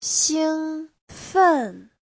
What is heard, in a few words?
"xing1 fen4", Chinese